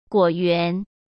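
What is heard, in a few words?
"果园", Mandarin Chinese; hsk; chinese flashcards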